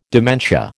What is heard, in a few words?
"dementia", American